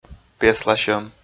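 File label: "песласьӧм", Komi, male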